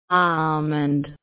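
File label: "almond", Australian English, female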